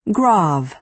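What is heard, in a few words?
"grave", American English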